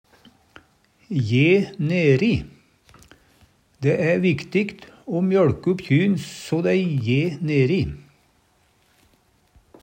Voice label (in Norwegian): je neri, Numedalsmål